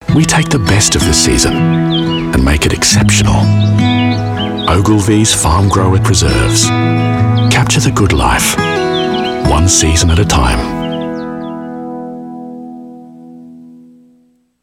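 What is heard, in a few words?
Australian English; Ogilvies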